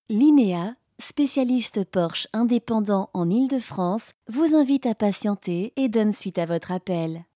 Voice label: French telephone